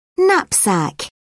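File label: "knapsack", British English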